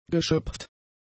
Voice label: "geschöpft", German; adjectives

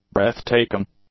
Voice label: "breath-taking", English; adjectives

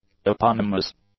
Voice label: "eponymous", English; adjectives

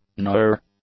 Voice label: "noir", english; adjectives

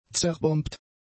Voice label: "zerbombt", German; adjectives

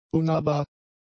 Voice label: "unnahbar", German; adjectives

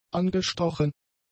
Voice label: "angestochen", German; adjectives

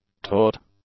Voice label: "taut", English; adjectives

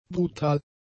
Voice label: "brutal", German; adjectives

